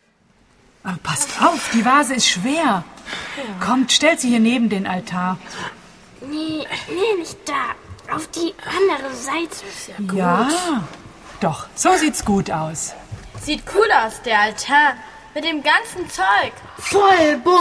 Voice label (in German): Hoerszene